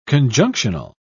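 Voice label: "conjunctional", British English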